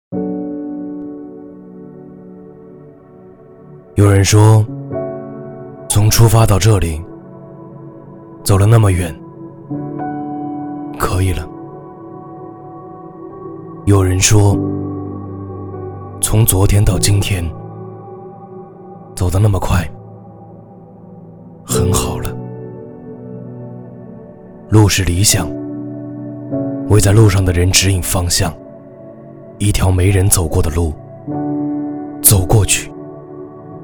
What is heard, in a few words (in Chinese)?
男, 旁白; 道路